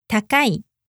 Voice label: "takai", japanese